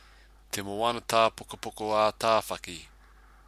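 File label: "te moana tapokopoko a tawhaki", Māori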